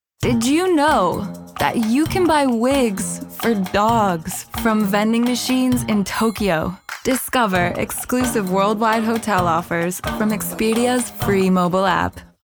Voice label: female English USA transatlantic accent commercial; voices; expedia; devine voices